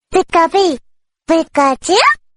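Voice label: "Pika Pi" Pikachu Message Notification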